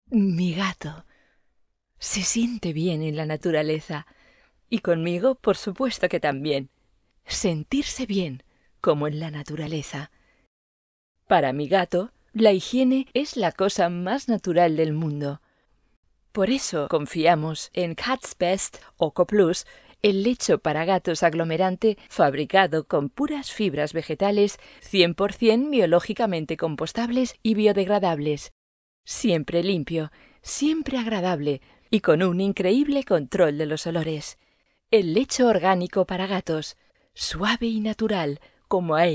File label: es publi, spanische sprecherin